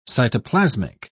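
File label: "cytoplasmic", British English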